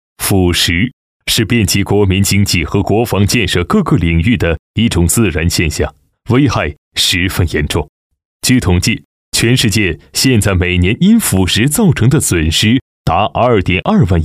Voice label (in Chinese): 男 专题 正气干练 军旅纪录片, 国语男声配音; 大气专题